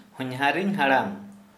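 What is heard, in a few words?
father in law, Santali, male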